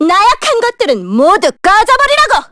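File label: Vox Skill, Korean; heroes; Miruru L; kings raid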